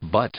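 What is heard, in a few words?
"but", English; conjunction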